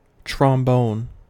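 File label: "trombone", American English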